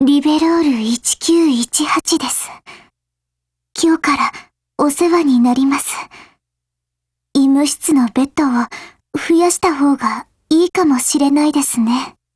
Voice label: GAIN JP; Ribeyrolles